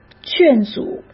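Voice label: "劝阻", Mandarin Chinese; hsk; chinese-flashcards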